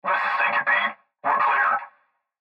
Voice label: Cb Trucker Radio; Radio Voice; Blastwave